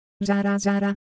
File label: Japanese, ideophones; Texture